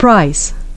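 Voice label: "price", English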